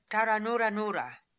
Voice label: "Taranooranura", pronounciations; Fourth Way; Beelzebub